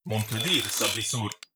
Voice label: robot human male greetings; Stellaris; synthetic dawn